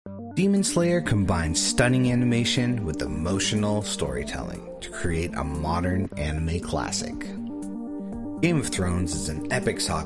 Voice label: Narrator Sampler